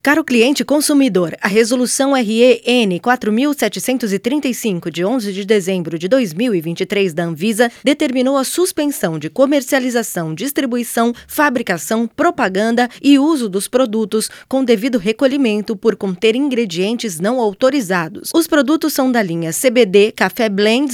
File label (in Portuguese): audio anuncio, fem; cbd; anvisa